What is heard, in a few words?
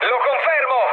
player killed enemy mood med, speech it